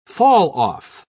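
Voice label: "falloff", English, British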